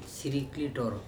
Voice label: leather back turtle sirikli TOro, Andamanese